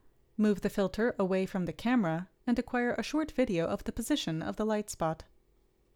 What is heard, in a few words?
Gong Voiceover